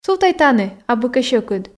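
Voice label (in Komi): сувтӧй тані абу кӧ сьӧкыд, Komi, female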